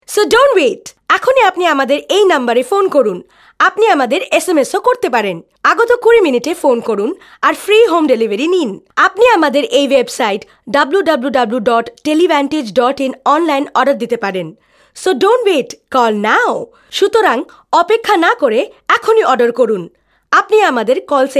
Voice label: BENGALI VO NATYAAAL